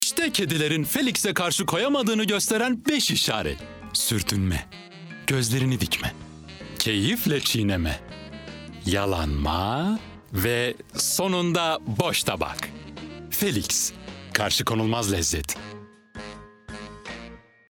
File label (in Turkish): enerjik esprili